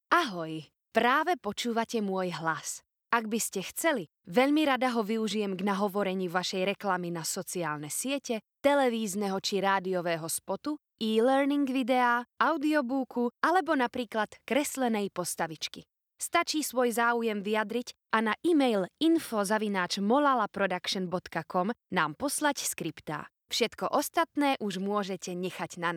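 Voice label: Slovak Female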